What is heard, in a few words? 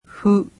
japanese alphabet "fu"